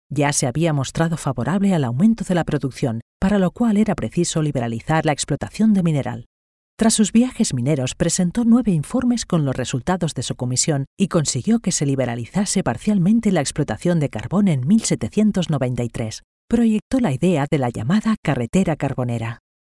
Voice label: locutora spanish voiceover

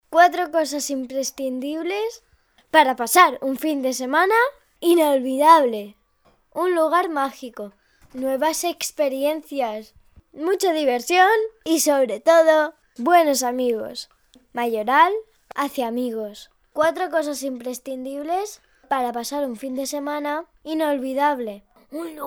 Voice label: locutora niña spanish child voiceover

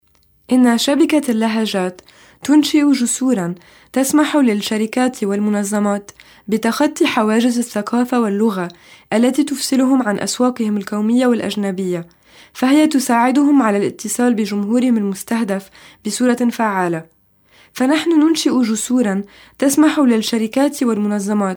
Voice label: locutora arabe, arabic female voiceover

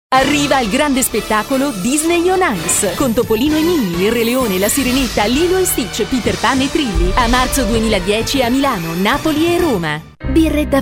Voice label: locutora italiana italian voiceover

